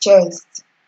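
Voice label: "chest", Nigerian Pidgin, female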